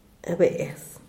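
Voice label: "beez", Zapotec, Díaz Ordaz; tigre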